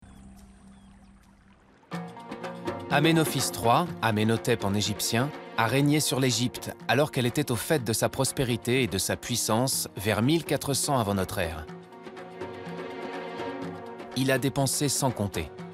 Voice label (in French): narration; Egypte